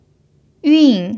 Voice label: "yun4", Mandarin pinyin

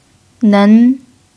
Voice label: "nen2", mandarin pinyin

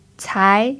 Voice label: "cai2", Mandarin pinyin

